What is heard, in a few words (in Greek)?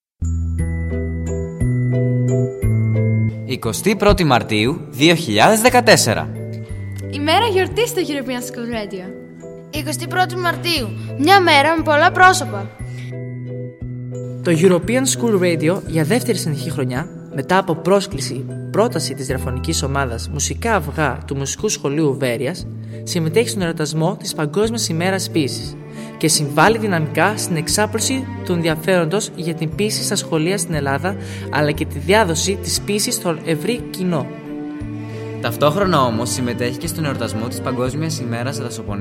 spot; 1 Hmera Poihsis